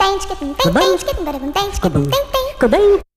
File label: bum shigga doobalah bay bay, Voice gibbrish